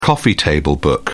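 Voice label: "coffee-table book", English, British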